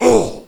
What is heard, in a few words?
orc hit; wesnoth